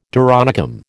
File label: "doronicum", American